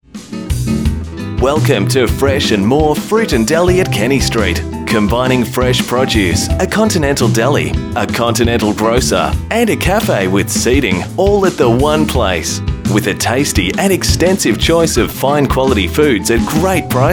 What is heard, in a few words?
retail supplier messages on hold